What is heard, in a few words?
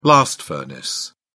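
"blast furnace", British English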